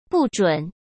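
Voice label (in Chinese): "不准", Mandarin Chinese; chinese flashcards; hsk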